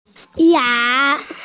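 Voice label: "ya2", Mandarin Chinese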